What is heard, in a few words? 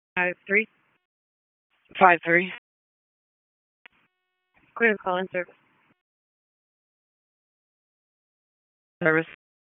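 EMS Dispatch, p25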